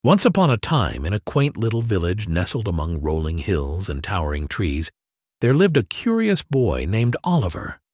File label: announcer